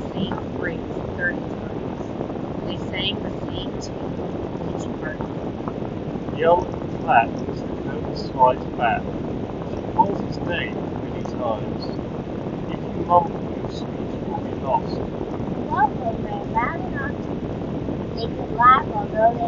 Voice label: JLab Go Air Pop Wind microphone sample